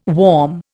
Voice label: "warm", Indian